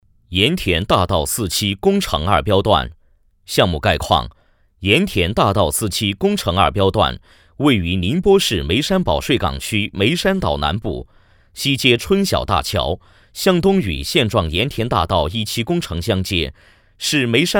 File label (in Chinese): 男 工程汇报; 盐田大道工程